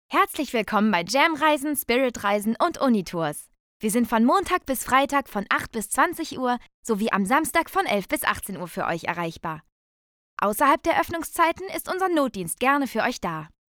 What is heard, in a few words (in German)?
Ansage